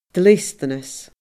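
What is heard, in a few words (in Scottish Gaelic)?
"dleastanas", Scottish Gaelic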